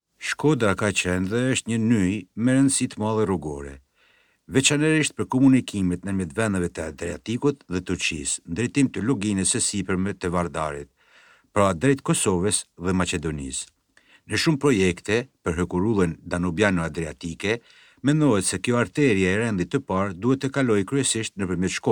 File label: albanian male